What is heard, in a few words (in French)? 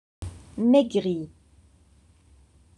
"maigrit", French